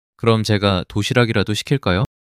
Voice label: neutral